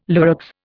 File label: "Lurex", Indian